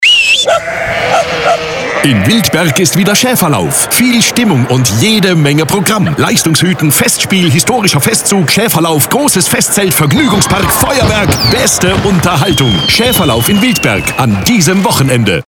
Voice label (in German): Radiospot; Schaeferlauf Wildberg 2022